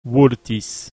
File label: "vultis", Latin